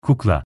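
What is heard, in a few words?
"kukla", Turkish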